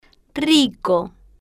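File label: "rico", slow, female, Spanish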